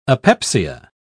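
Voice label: "apepsia", British English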